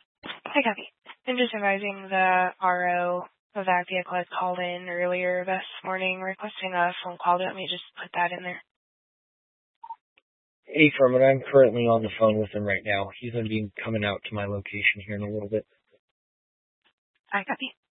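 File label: Police 1 Dispatch, Scanner audio recording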